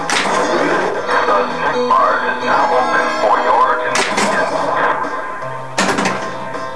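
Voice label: "The snackbar is now open", pinball; SNACKS; Creature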